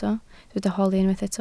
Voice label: "holi", Welsh